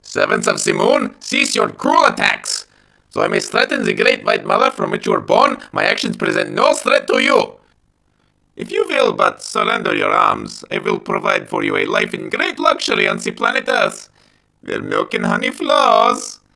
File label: plead; fortified; merkwurdiglust